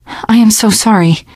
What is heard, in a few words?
sorry, teen girl voice; survivor voice